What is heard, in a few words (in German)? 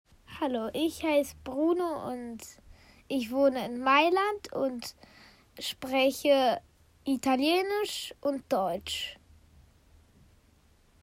Italienisch Vorstellung